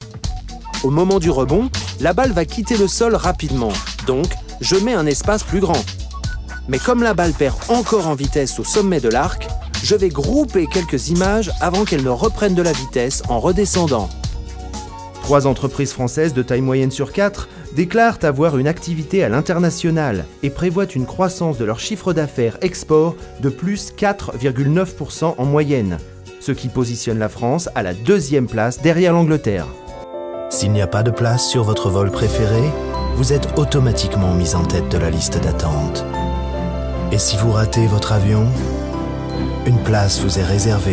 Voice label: French Corporate